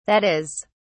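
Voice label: "that is", British English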